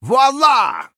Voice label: Male Human Attack